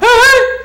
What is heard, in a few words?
"huh huh" female